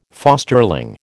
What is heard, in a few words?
"fosterling", American